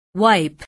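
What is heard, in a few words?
"wipe", English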